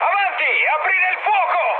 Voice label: attack position, mood high, speech it

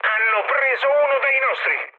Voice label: aircraft destroyed, mood med, speech it

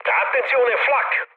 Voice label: damaged aa, mood low, speech it; War Thunder

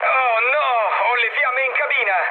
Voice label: on fire, mood low, speech it; War Thunder

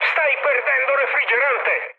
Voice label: damaged water, mood high, speech it; War Thunder

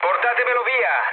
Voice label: aircraft damaged, mood low, speech it

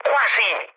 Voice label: bomb failure, mood med, speech it; War Thunder

